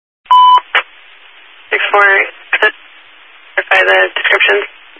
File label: Highway Patrol, scanner